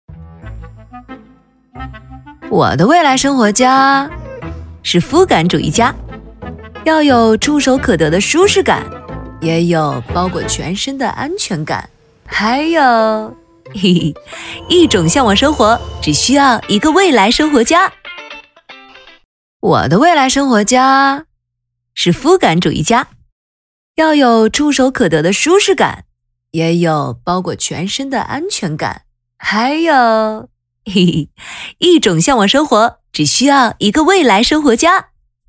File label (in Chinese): 女 广告; 女4-广告-我的未来生活家